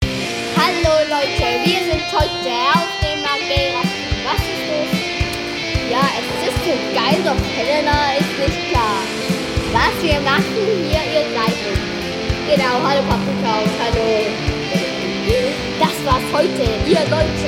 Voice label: jingle